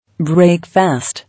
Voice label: "Break fast", English

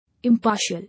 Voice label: "Impartial", English

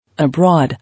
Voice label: "Abroad", English

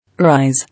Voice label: "Arise", English

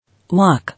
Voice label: "Lock", English

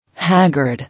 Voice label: "Haggard", English

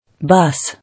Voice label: "Bus - buses or busses", English

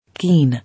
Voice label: "Keen", English

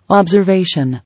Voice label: "Observation", English

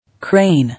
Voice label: "Crane", English

